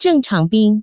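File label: zhengchang bing, Taiwan Mandarin; drink order; tian du; bing kuai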